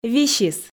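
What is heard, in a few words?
"висис", Komi, female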